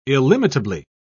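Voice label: "illimitably", British English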